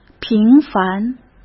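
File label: "平凡", Mandarin Chinese; chinese-flashcards; hsk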